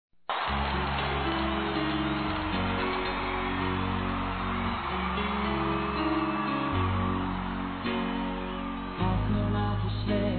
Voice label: NEUTRA, Telemensagens, Páscoa, masc

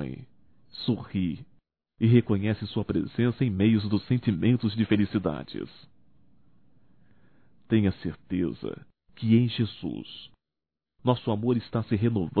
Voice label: ANO NOVO ROMANTICO (M); Natal e ano novo; Telemensagens 2017

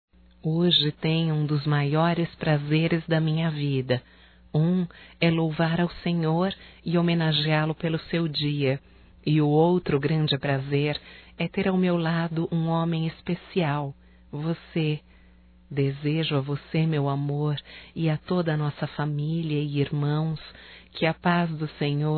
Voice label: Romântico Feminino; Natal e ano novo; Telemensagens 2017

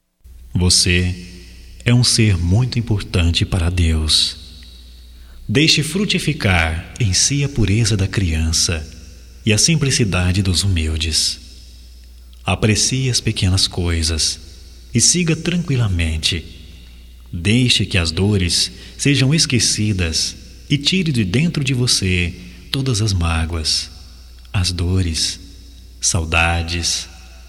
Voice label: OTIMISMO GERAL M, Só Voz, masc; Telemensagens; Telemensagens 2017

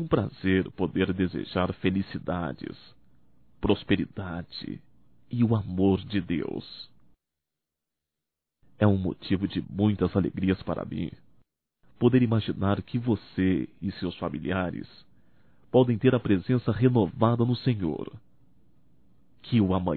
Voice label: ANO NOVO NEUTRO (M); Natal e ano novo; Telemensagens 2017

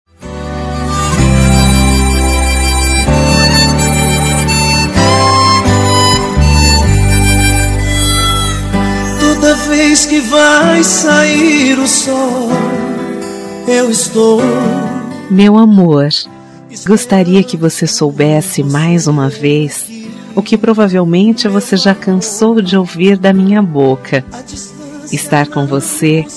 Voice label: ROM ETERNAMENTE FEM; Telemensagens 2017; Românticas

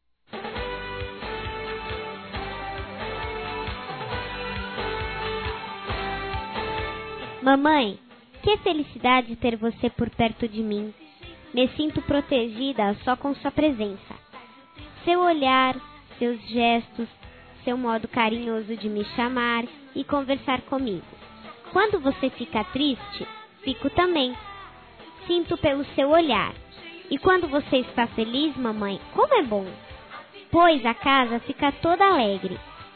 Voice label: ANIV. MÃE CRIANÇA-F, Telemensagens; Aniversário Infantil

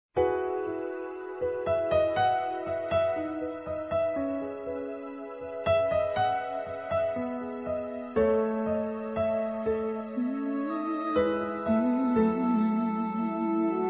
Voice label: PAI COMO MÃE F, Telemensagens, Dia das Mães; Telemensagens 2017

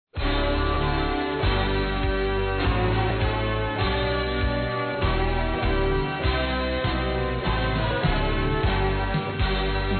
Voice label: Dia Das Mães Para Mãe Masculino, Telemensagens; Telemensagens 2017

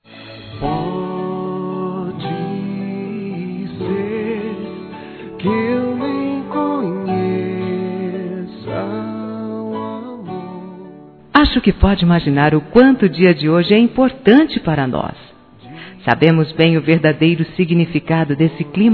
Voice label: dia mulher mulher fem.; Telemensagens 2017

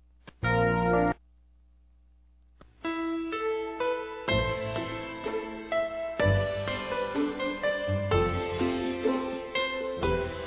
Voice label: Telemensagens Amor Fem; Telemensagens 2017

